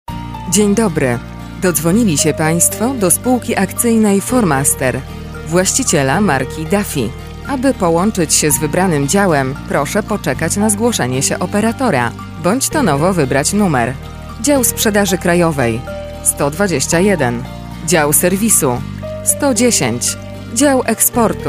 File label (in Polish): IVR muzyka glosniej; Formaster